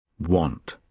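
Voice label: "want" British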